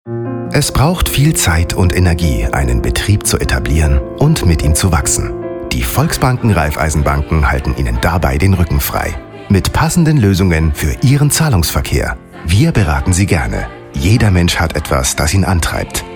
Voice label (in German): Spot Banken Kunden; GVB VR